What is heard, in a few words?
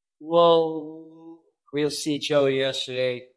Voice Acting, es